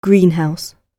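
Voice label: "greenhouse" spoken, British English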